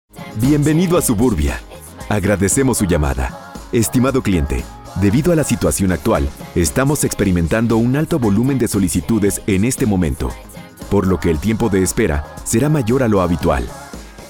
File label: IVR